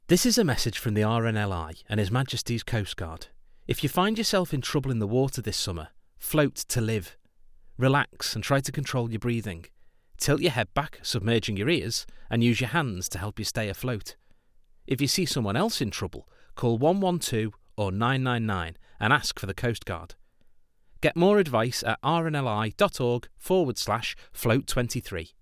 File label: Studio; 20s-40s; Male; Liverpool; Based in the US